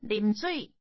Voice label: drink water, Taiwanese